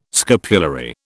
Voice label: "scapulary", British